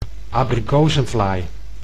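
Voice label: "abrikozenvlaai", Dutch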